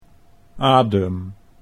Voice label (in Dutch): "adem", Dutch